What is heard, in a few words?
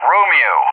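"Romeo", English; JTAC; Alphabet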